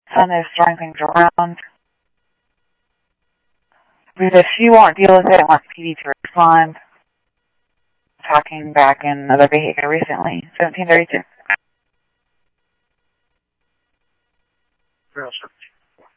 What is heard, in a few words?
Police, scanner audio trunk